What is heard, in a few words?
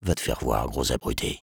sniper taunts, fr; Réponses du Sniper